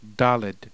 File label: dalet, heb